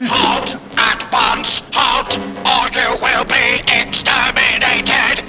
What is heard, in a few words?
dalek halt; dwho